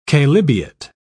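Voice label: "chalybeate", British English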